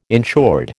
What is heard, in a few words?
"insured", American